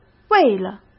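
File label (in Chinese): "为了", Mandarin Chinese; chinese flashcards; hsk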